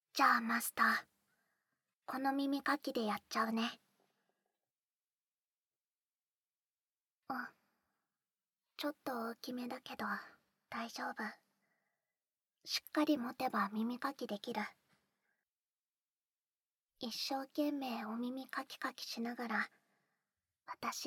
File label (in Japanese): 耳かき, ASMR; アクアの耳かき; 左右反転